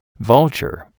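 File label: "vulture", English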